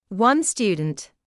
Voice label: "one student", English

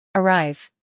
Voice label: "arrive", English

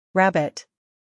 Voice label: "rabbit", English

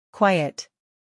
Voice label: "quiet", English

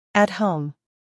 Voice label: "at home", English

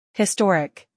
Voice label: "historic", English

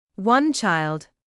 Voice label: "one child", English

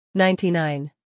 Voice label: "ninety-nine", English

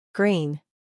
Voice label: "green", English

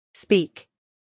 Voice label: "speak", English

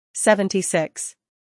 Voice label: "seventy-six", English

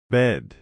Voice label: "bed", English

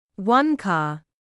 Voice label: "one car", English

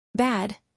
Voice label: "bad", English

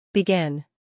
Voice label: "begin", English

